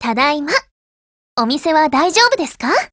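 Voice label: OPERATION OVER, Japanese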